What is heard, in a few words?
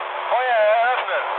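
German attack position mood low, speech; War Thunder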